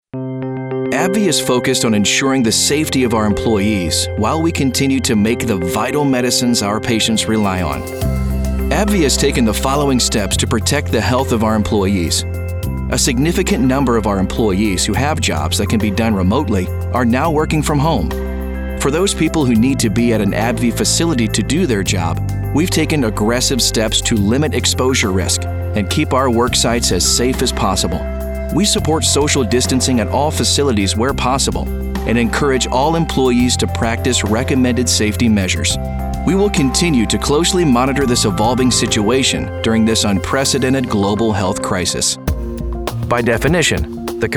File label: eLearning